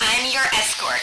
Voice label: escort, radio, female